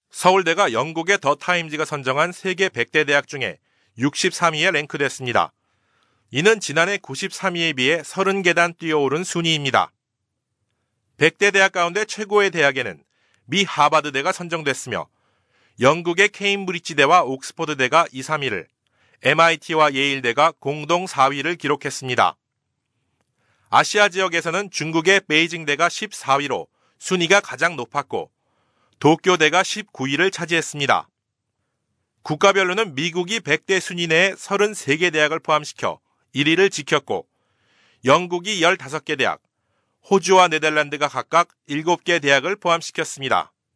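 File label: Korean male voice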